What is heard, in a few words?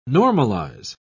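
"normalise", British English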